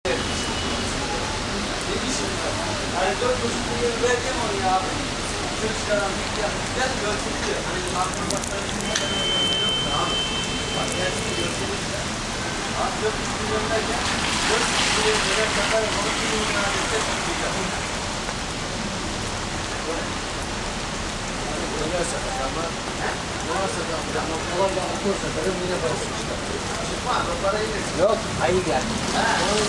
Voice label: besiktas street vendors